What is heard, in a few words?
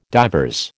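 "divers", American English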